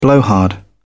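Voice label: "blowhard", British English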